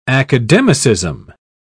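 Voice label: "academicism", English, British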